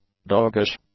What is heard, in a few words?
"doggish", English; adjectives